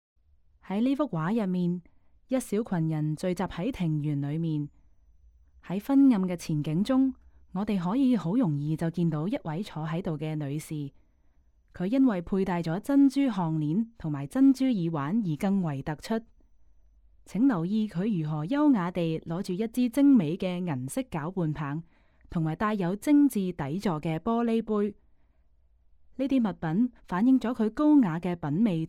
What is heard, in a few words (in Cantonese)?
Canto; audio guide